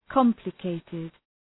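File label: "complicated", English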